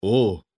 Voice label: Neutral HELLO; Civ5; Oda Nobunaga

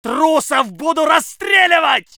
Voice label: voice sov infantry

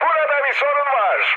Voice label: aircraft damaged mood high, speech; War Thunder

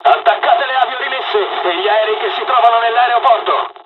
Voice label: japanese first wave, dialogs italian; War Thunder

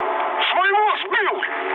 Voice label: player killed ally, mood low, speech ru

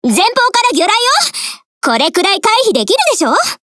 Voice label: Speech Alarms Torpedo Ahead; Hipper; Azur Lane

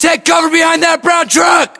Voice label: order cover truck brown, battlechatter us; localized english

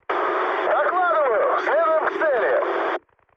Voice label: chat, speech ru

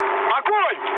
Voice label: attack position, mood med, speech ru; War Thunder

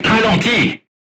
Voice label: voice message drive slowly, crew tanks french

